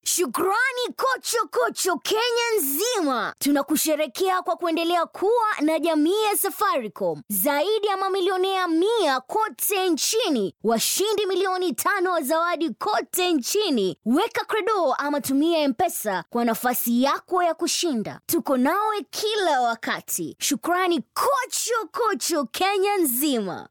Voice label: Swahili F Commercial; voice-over

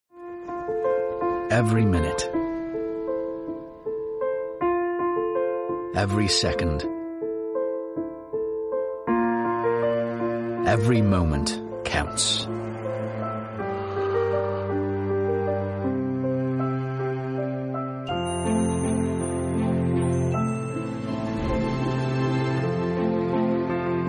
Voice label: Welsh English M Commercial, accent of wales

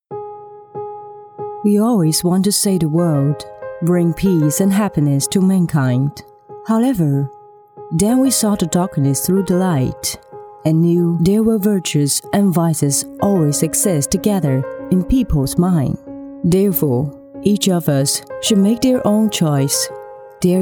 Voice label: Chinese English F Explainer, accent of china; voice over

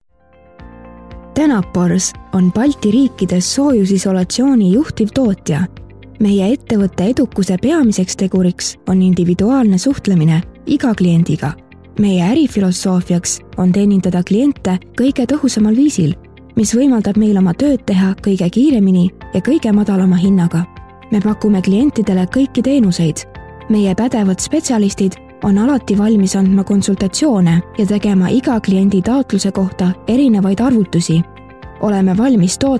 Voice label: Estonian F Corporate

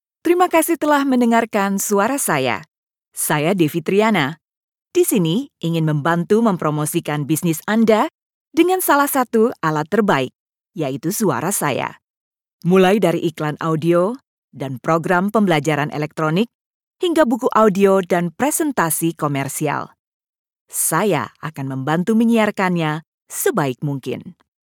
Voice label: Indonesian Bahasa F Corporate; voice over

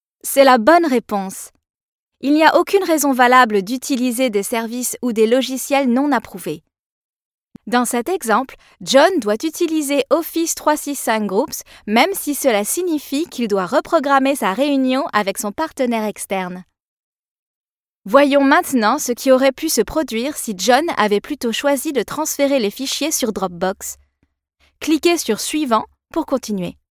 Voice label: French EU F Corporate; voice over

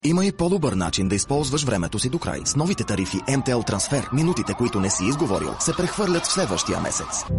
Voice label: Bulgarian M Commercial; voice over

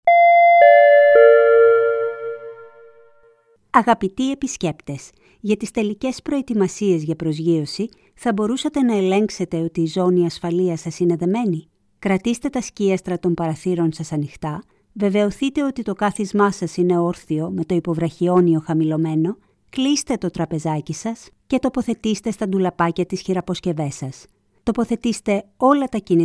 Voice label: Greek F IVR; voice over

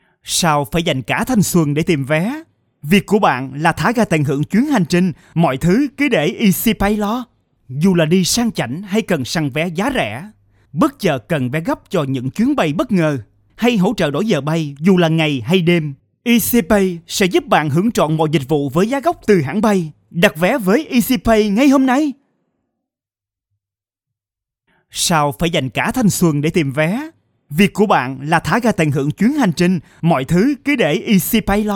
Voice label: Vietnamese, M, Corporate

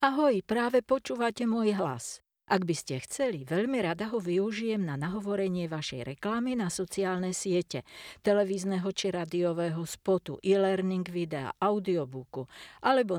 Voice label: Slovak F

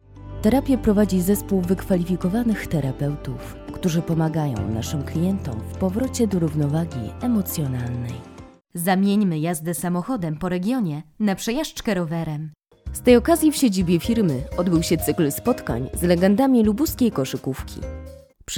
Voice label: Polish F Corporate

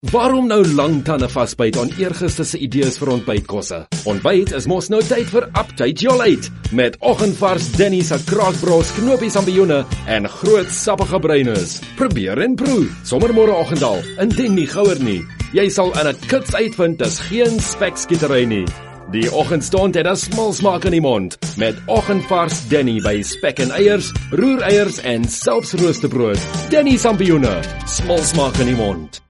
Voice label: Afrikaans M Commercial; voice over

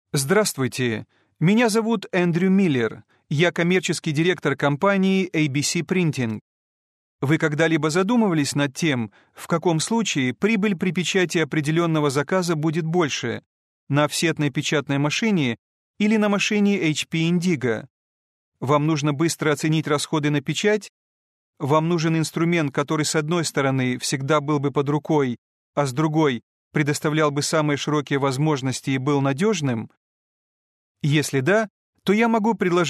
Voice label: Russian, corporate

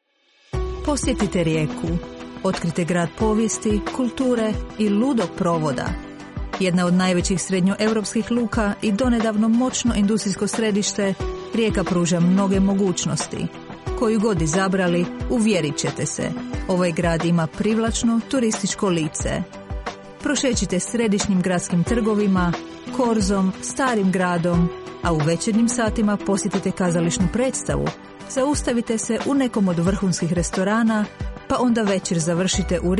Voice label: Croatian F Commercial; voice over

